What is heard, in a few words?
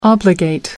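"obligate", British English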